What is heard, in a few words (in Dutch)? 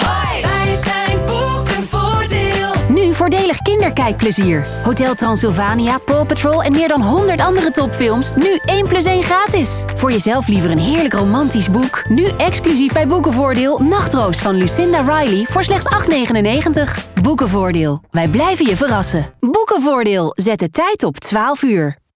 Tijdsein commercial; Boekenvoordeel; 12 uur